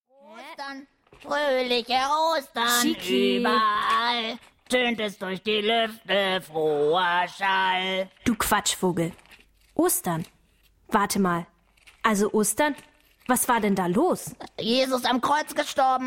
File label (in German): Hoerspiel Szene